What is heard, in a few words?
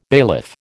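"bailiff", American English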